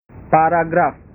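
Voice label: "paragraf", Indonesian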